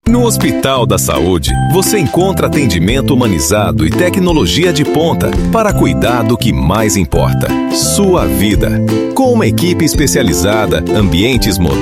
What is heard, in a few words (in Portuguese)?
Spot Simples; Hospital da Saude